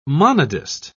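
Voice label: "monodist", English, British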